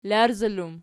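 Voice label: "larzala" plural, Archi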